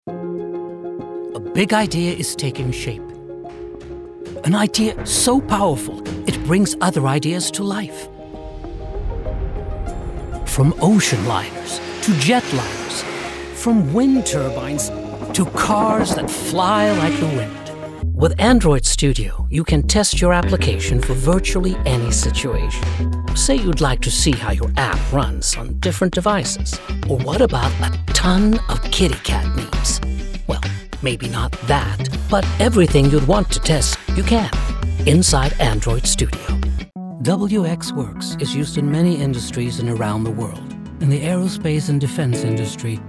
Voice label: Explainer Eng